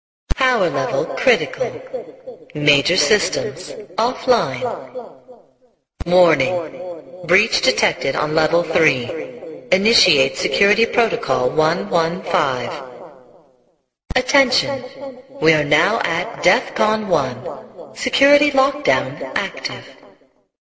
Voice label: announcer; pentagon